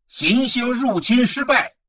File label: advisor notification invasion failed, chinese; Stellaris